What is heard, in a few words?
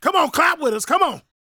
preachin, gospel preacher